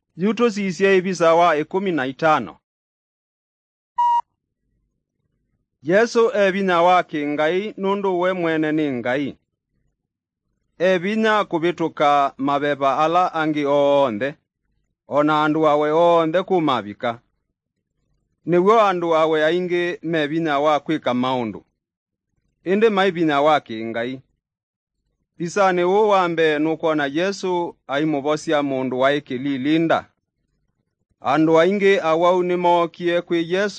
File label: kikamba drama; good news; bible